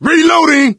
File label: reload intense, survivor voice; Left 4 Dead 2; coach